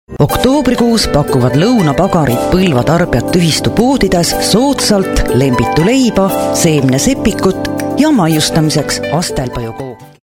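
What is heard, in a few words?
Estonian, female, commercial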